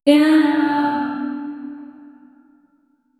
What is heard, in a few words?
realistic soft anime girl